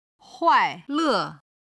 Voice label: "huaile", chinese